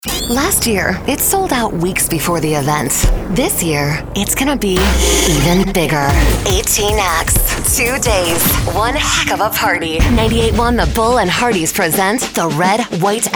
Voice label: radio country, radio imaging

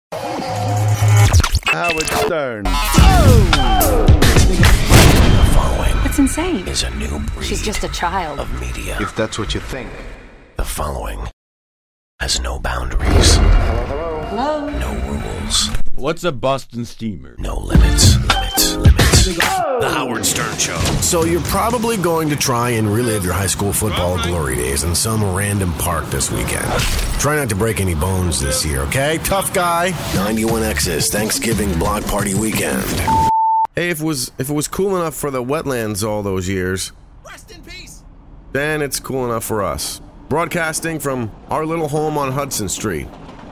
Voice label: radio imaging

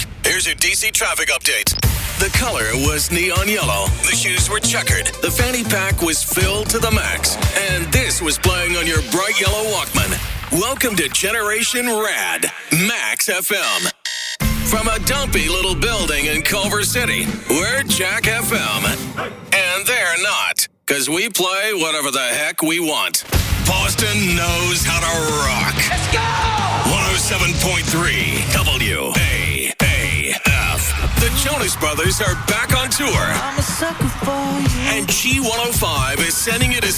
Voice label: radio imaging men multi format

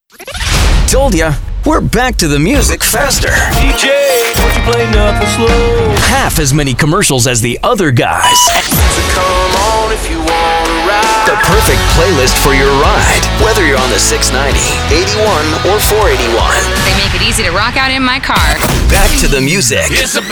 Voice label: radio imaging men country

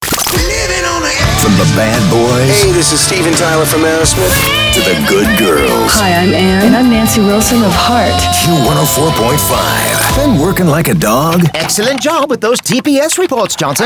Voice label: radio imaging male classic rock

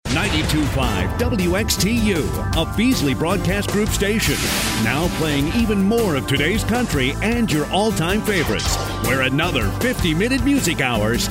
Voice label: radio imaging

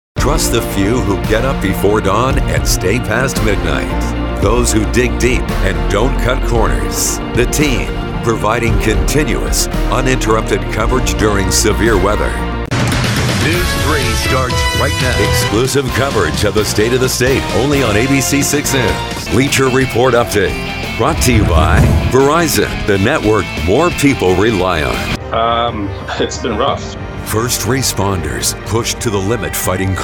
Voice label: tv affiliate men

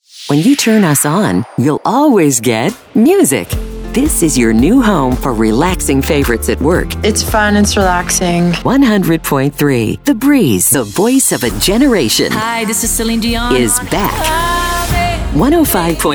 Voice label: radio women Soft AC; radio imaging

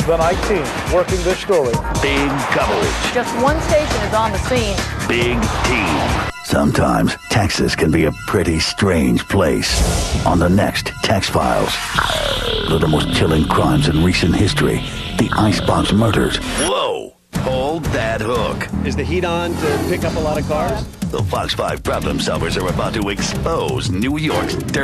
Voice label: news

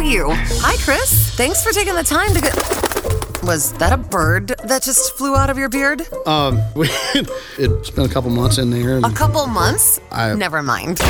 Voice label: radio women country, radio imaging

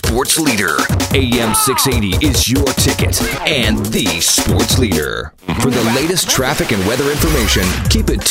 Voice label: News Talk, radio imaging

